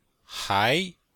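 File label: "hai2", Chinese; Cours de Chinois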